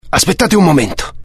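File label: curious, Italian; dialogue; mohaa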